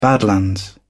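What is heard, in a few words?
"badlands", British English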